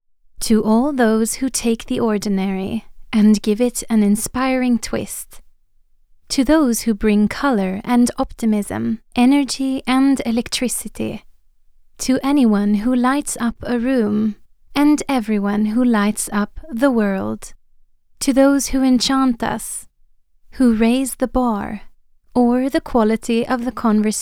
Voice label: Commercial US ENGLISH SWEDISH ACCENT Calming Clear Sophisticated